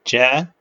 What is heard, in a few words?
short voyels arabic alphabet